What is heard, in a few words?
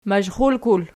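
"mazhrulkul" nominative, Archi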